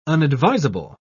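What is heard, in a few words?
"unadvisable", British English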